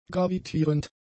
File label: "gravitierend", German; adjectives